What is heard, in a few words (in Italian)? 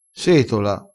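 "setola", vocabolario dialettale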